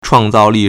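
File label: "chuàngzàolì", Chinese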